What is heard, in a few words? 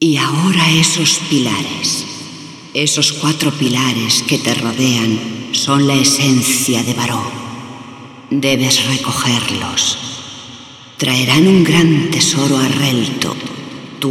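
Speech spanish; Yeesha; moul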